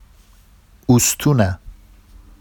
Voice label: "ustuna", Vafsi; اوستونَ; n column

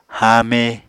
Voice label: "haame", Apatani

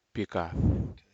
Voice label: Aneityum pig

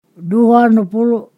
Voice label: "twenty", Ponosakan

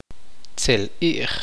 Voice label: "espalda", tektiteko

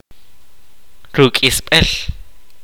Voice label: kaqchikel; last

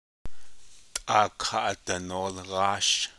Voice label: navajo; Don't oversleep

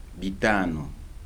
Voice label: "five", Kibembe

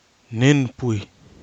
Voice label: "ninhupwi", Nafe (Kwamera)

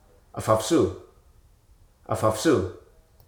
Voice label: "irritate", Hruso

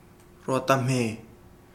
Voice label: red ant, Kapingamarangi

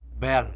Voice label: "bel", tlacolula; fish

